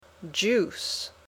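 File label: "juice", English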